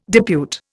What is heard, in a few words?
"depute", Indian